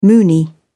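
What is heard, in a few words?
"moonie", British English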